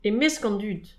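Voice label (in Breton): "e mesk an dud", Breton; petit precis de prononciation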